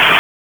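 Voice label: Raleigh Police North District Tactical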